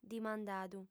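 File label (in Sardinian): "dimandadu", female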